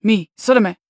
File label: neutral short